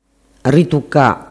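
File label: "rituca", Sicilian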